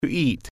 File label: "eat", English